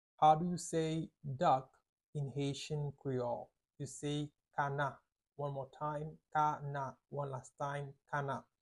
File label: Kana pronunciation by a native Haitian tutor, Haitian Creole; How to say Duck in Haitian Creole